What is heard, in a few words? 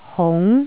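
"hung2", Cantonese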